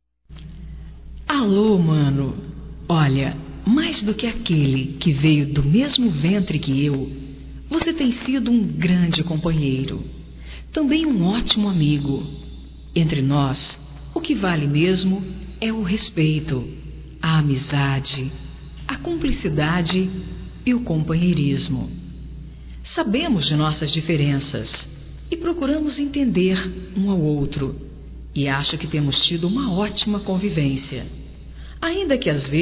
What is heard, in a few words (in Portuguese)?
IRMAO, Telemensagens, Aniversarios, fem; Telemensagens 2017